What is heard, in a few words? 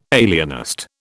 "alienist", British